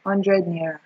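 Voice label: "hundred naira", Nigerian Pidgin, female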